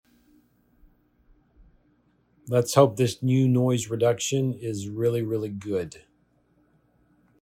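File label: new noise reduction; PPro fall 2018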